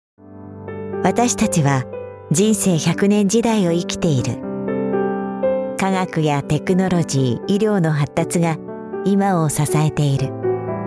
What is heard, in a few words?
japanese voice corporate